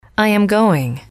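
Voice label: "I am going", English, female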